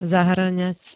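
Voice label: "zahŕňať", Slovak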